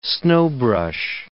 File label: "snowbrush", English